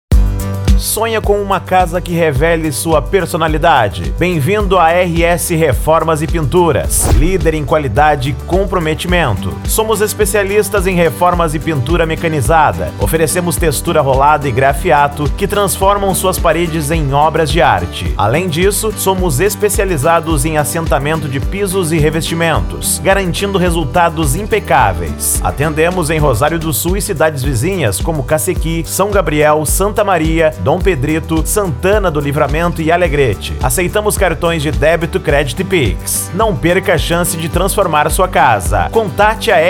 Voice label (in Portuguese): Locutor; RS Reformas E Pinturas Rosario Do Sul RS